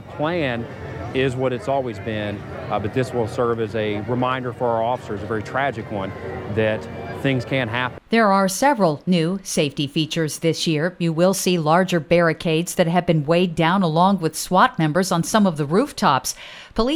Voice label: Evansville IN Female